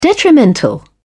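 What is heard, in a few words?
"detrimental", British English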